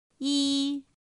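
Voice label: "Ī", chino mandarin; pinyin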